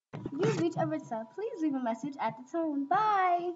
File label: voice mail